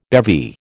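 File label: "bevy", American English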